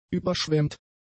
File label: "überschwemmt", German; adjectives